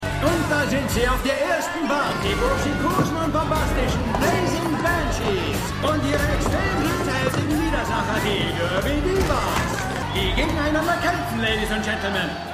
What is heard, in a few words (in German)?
Ansager; Lucifer